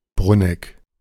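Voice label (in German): "Bruneck", German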